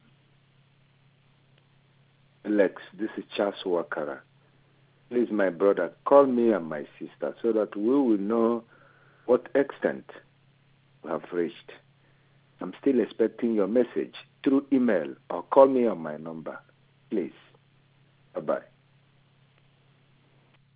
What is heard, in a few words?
phone